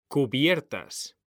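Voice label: "CUBIERTAS", Spanish; mekanta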